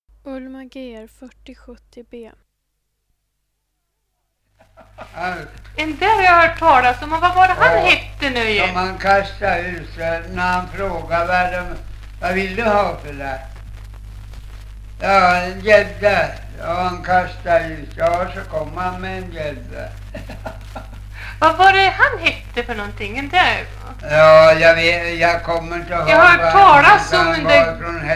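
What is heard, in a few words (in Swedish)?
Valbo Gästrikland, dialekter; Folkminnen; Svenska sagor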